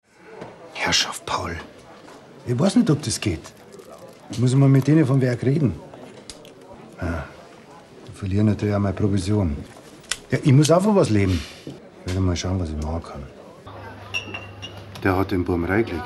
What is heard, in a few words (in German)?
Bayrisch